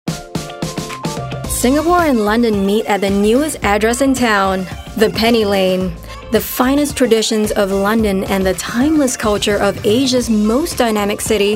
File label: Asian English Property; The Penny Lane